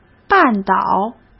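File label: "半岛", Mandarin Chinese; hsk; chinese-flashcards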